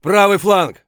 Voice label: flank right, voice; Escape from Tarkov

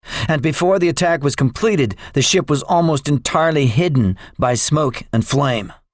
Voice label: debriefing, english; War Thunder

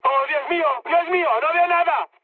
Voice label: searchlite, mood med, speech; War Thunder

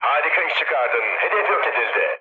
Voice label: bomb success, mood low, speech tur; War Thunder

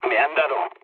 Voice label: aircraft damaged, mood low, speech; War Thunder

